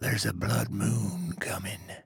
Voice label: trader male neutral greeting